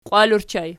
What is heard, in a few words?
"qiwal", Archi